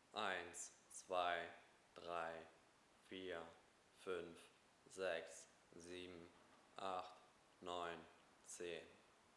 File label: mc650 30cm direkt